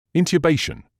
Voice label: "intubation", English